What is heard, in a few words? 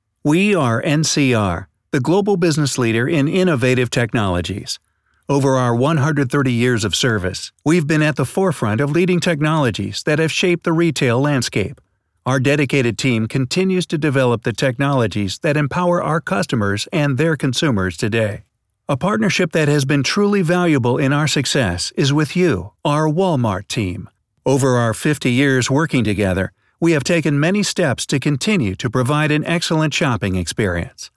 men corporate